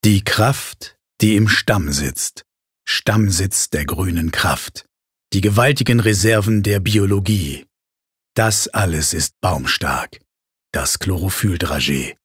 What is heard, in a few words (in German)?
Werbung Sanft